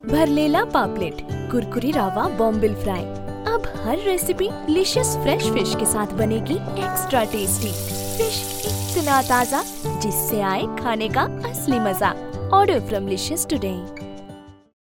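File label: Hindi, Commercial; voice demos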